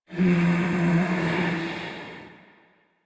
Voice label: Intelligible Whisper B; Rell; Warframe; Harrow